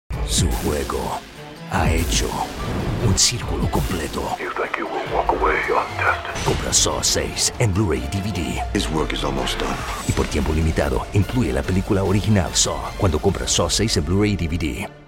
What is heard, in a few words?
crank 2 spanish